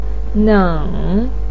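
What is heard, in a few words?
"neng" third tone, Mandarin Chinese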